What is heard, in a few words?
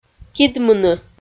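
"кидмыны", Komi, female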